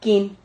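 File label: "kin", syllable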